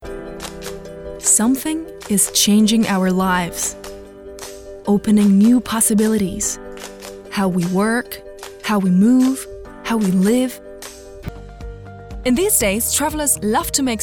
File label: English Voice